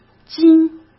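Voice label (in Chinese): "斤", Mandarin Chinese; chinese-flashcards; hsk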